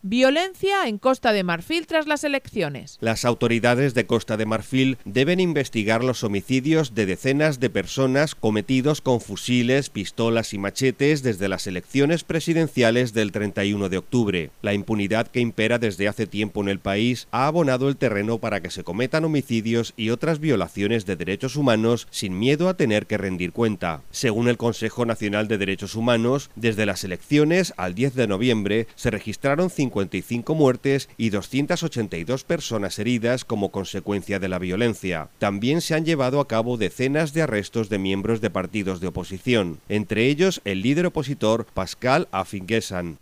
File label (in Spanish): informativo; costa marfil violencia postelectoral